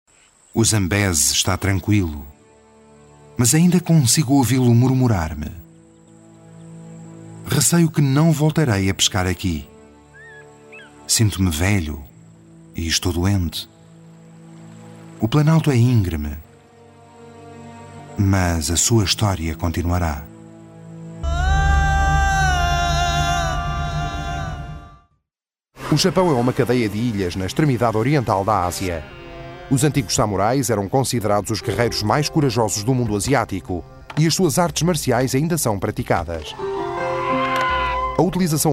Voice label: portuguese documentaries

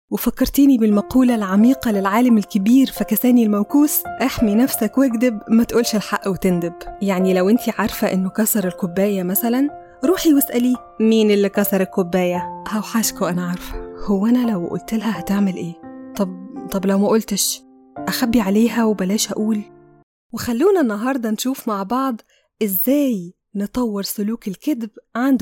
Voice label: arabic podcasts

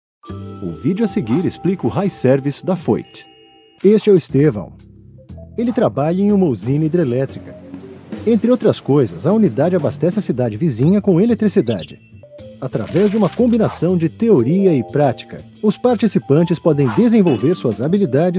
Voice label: Portuguese Brazilian training e-learning

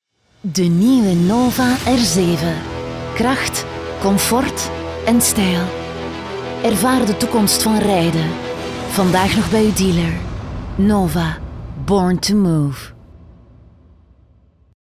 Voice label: flemish automotive; flemish voice actors

